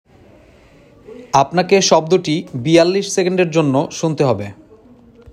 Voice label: male sound given